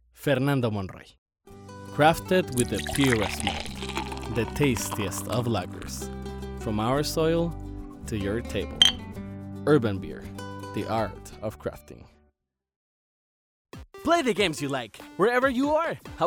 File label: Commercial, Male voices